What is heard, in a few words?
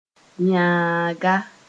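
"yaanga", Mooré; ground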